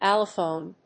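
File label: "allophone", English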